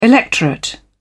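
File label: "electorate", British English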